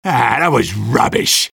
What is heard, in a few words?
jeers; sniper; garrysmod; tf2-content-gmodcontent